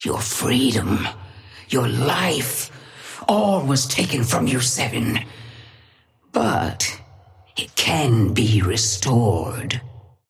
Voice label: female ally start; Patron; gigawatt

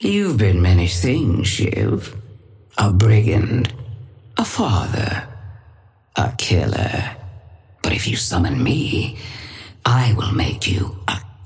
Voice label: female; Patron; shiv

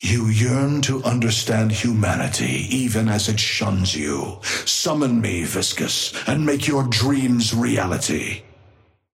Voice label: Patron male ally start; viscous

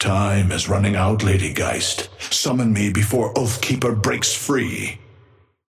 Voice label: male ally start; Patron; ghost

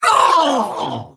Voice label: pain death

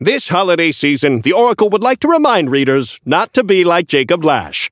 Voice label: Newscaster seasonal headline

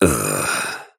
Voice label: pain low health warning; Operative

